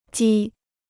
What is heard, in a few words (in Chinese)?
"鸡", Chinese